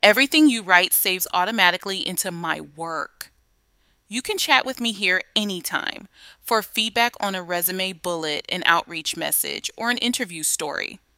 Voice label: tour narration